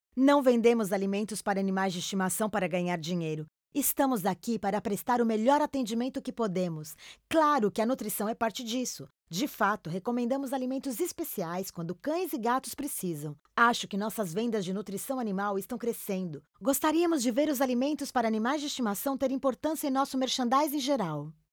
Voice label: brazilian f narration, portuguese; voice demos